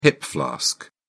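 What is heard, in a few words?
"hip flask", British English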